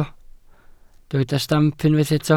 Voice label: "stamp", welsh